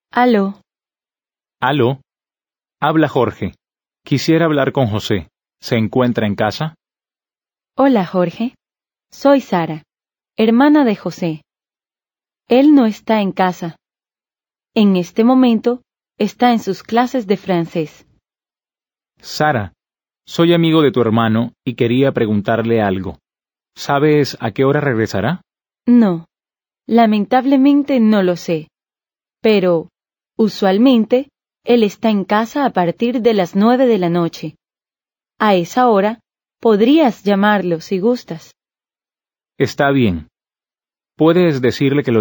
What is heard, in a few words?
Dialogue, Spanish